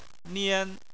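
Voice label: "nian", Chinese, first tone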